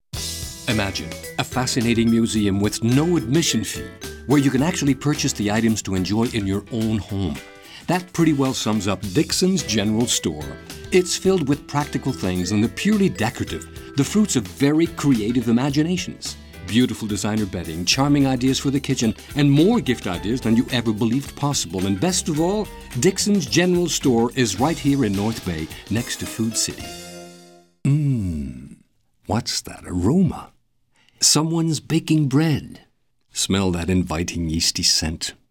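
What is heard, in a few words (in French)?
voix Anglais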